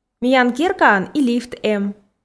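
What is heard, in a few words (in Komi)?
миян керкаын и лифт эм, Komi, female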